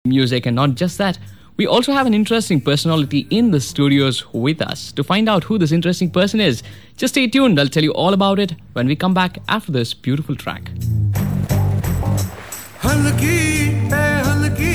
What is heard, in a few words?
Radio